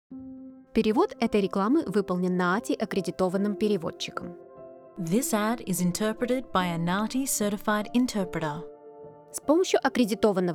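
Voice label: Russian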